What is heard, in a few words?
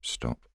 voiceover